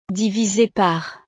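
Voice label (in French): "divise par", French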